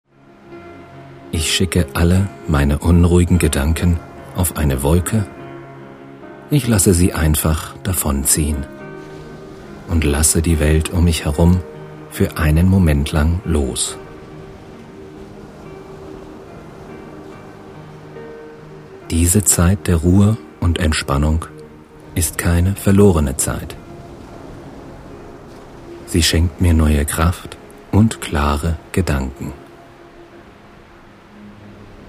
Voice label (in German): maennl stimme, meditation; power meditation manager managerin; kurzversion